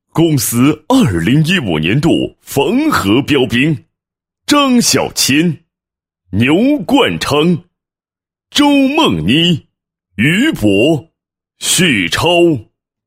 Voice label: 男 颁奖, 配音; 公司2015年度颁奖词

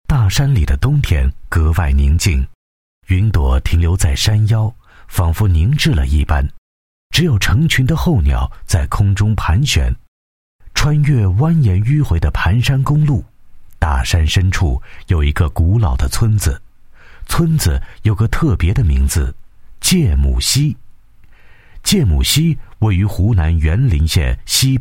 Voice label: 男 纪录片 自然风光配音 大气 磁性 成熟 知性, 国语男声配音

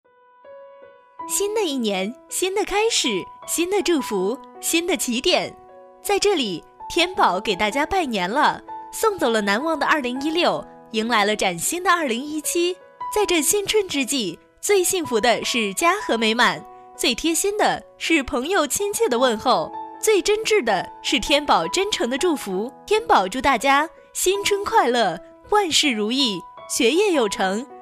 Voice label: 女 辞旧迎新彩铃, 国语女声配音 彩铃广告

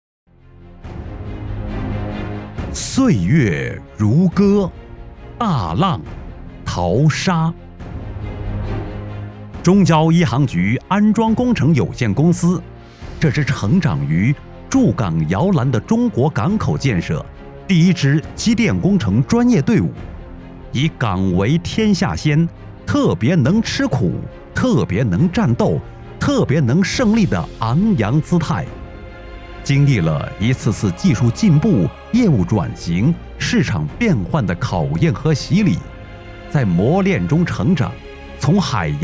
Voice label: 企业宣传片; 中交一航局

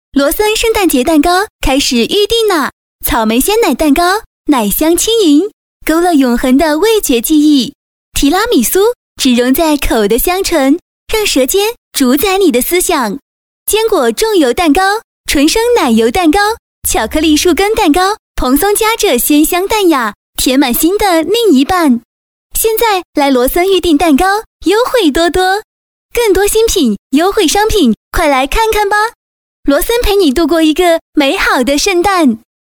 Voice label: 女 广告 圣诞节, 国语女声配音; 罗森

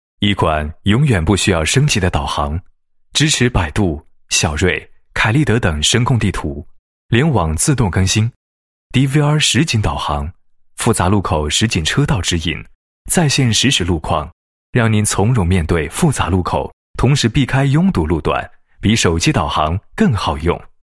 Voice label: 男 产品介绍; 航睿 A800简介

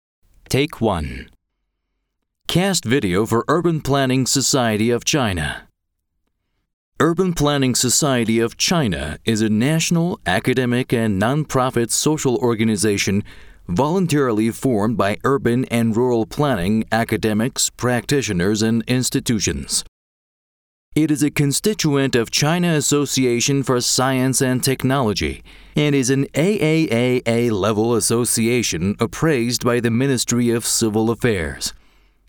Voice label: 外籍女 Audition

